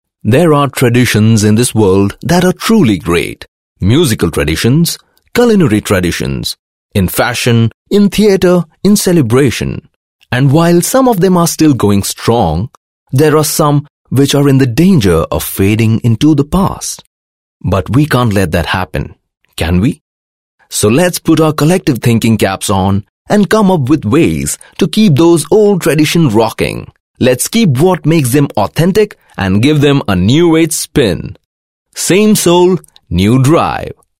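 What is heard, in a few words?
audio visual voice over english, male voice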